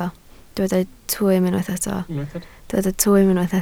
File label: "twym", Welsh